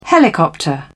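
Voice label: "helicopter", British English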